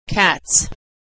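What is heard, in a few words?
"cats", American English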